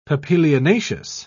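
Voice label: "papilionaceous", British English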